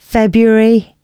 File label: female, announcements